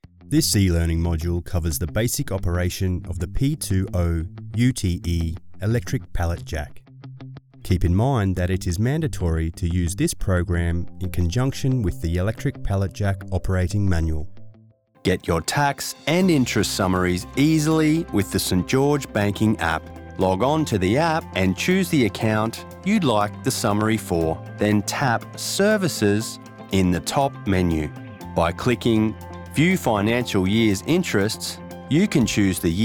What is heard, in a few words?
Elearning explainer